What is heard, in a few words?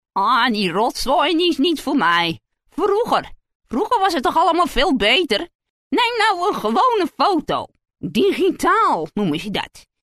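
dutch character, female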